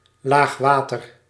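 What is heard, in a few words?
"laagwater", Dutch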